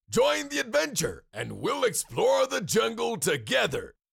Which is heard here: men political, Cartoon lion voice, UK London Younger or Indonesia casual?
Cartoon lion voice